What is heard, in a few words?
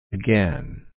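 "again", USA, male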